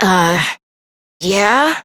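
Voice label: Voice, English; New War; Operator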